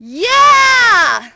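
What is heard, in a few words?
"yeah", British; Splashdown; XBOX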